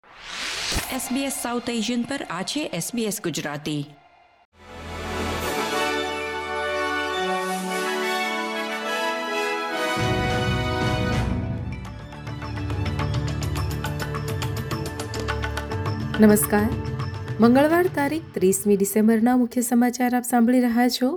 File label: gujarati news bulletin; SBS Gujarati Australian update: 30 December 2025